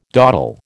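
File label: "dawdle", American English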